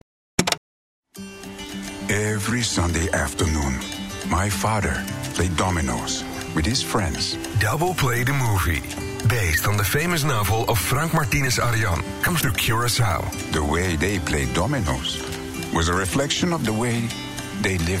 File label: english commercial